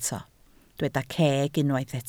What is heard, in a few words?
"ceg", Welsh